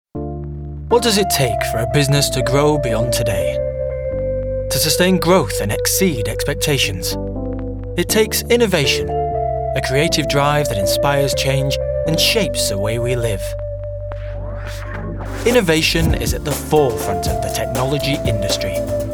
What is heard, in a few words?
Corporate